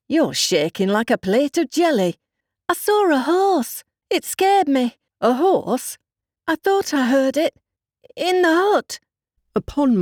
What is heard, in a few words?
english british animation